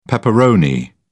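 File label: "pepperoni", British English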